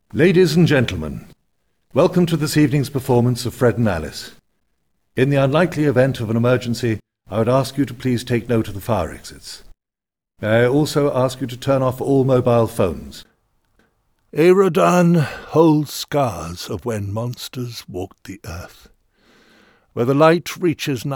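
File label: Theatre announcement, British, natural, trustworthy Male Voice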